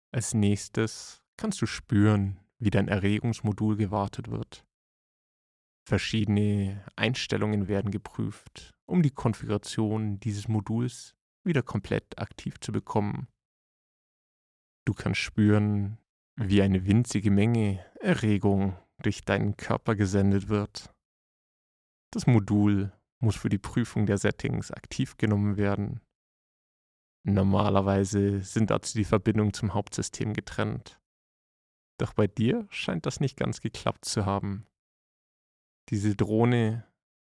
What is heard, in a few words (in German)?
Werbeclip; Drohnen-Wartung Orgasmus-Modul